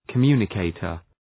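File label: "communicator", English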